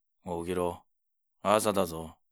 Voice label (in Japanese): mezamashi, ASMR